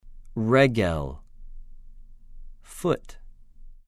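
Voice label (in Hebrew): "רֶ֫גֶל", Hebrew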